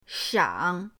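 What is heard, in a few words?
"shang3", Mandarin Chinese, third tone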